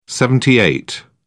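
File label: "seventy eight", British English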